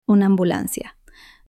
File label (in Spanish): "una ambulancia", Spanish